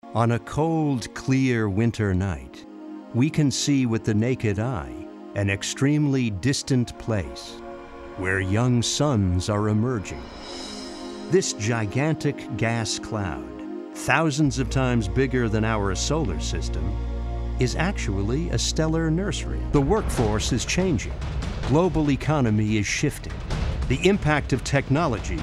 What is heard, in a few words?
US English Narration